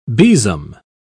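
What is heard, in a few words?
"besom", British English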